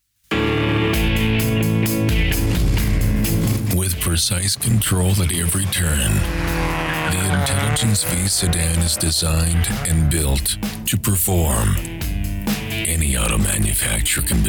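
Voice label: Commercial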